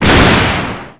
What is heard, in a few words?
outburst